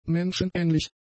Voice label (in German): "menschenähnlich", German; adjectives